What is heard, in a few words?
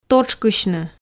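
"тотшкысьны", Komi, female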